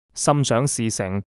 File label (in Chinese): 心想事成, Cantonese